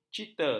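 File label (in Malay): "cita", Malay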